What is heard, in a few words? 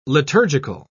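"liturgical", British English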